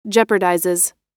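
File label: "jeopardizes", American English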